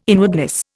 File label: "inwardness", Indian